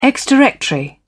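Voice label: "ex-directory", British English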